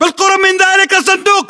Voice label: landmark near crater, battle chatter, english